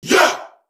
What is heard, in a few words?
"yo", group of men